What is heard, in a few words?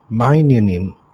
how are you, hebrew